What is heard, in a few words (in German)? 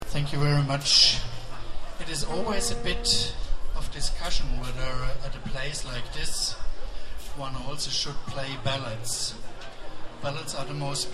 Ansage